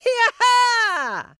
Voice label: elf cheer